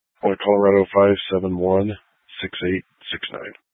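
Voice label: Dispatch, Scanner audio recording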